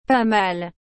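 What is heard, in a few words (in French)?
"Pas mal", French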